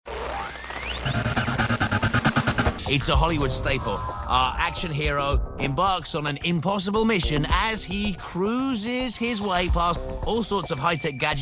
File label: Narration; Mythbusters